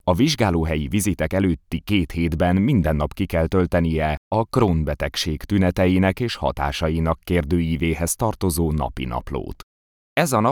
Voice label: VO ELEARNING; CROHN

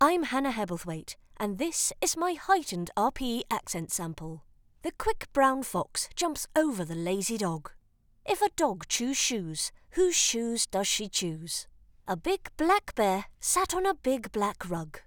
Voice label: UK Heightened RP Accent

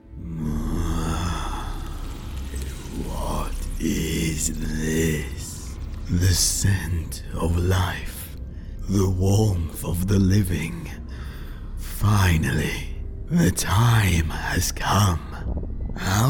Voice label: Evil Beast

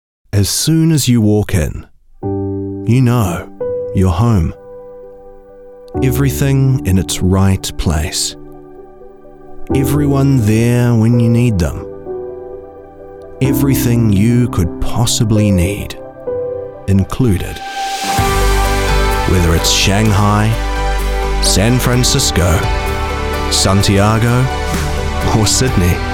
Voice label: Relaxed retail ad, en-NZ

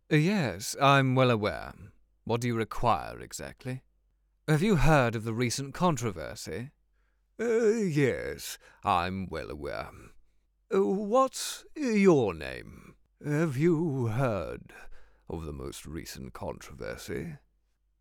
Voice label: RP English; big mouth

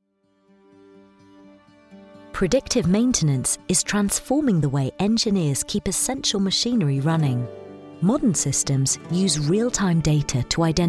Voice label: Longer Tech Explainer

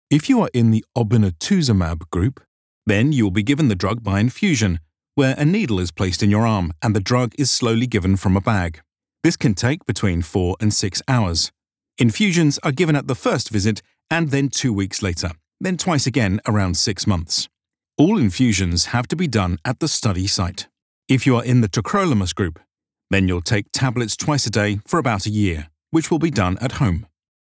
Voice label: elearning

